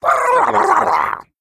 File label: Male Murloc Play